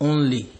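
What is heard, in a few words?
"only", Nigerian Pidgin, male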